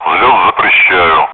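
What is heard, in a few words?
Speech, Russian, air traffic control; unable to clear takeoff; DCS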